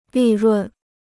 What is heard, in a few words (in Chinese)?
"利润", Chinese